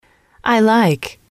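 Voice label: "I like", English, female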